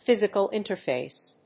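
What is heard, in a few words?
"physical interface", English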